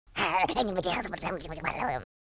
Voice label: pray, speech, Horror

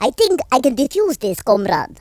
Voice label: grenade, Russki

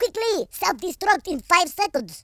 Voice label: hurry, Russki

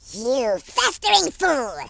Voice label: "oi nutter", Worms Horror speech

